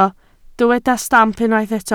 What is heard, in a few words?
"stamp", Welsh